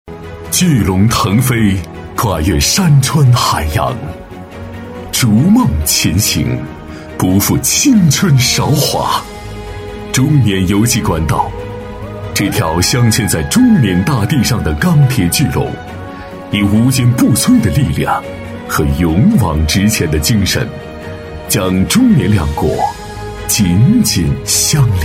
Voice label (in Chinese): 男 专题; 中缅油气管道; 磁性稳重; 沉稳大气